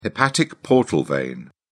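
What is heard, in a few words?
"hepatic portal vein", British English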